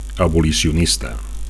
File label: "abolicionista", Catalan; abolitionist